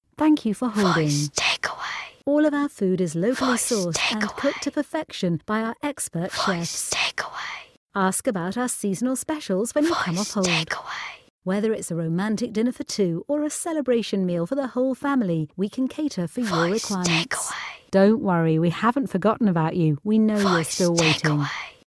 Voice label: Restaurants Rich Female

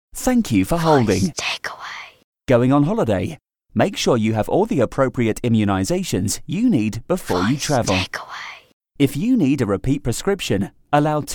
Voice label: Doctors Fresh Male

